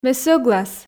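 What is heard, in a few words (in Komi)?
ме сӧглас, Komi, female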